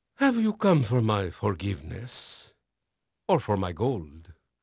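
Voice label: Spanish male, voice; Pirates Of The Caribbean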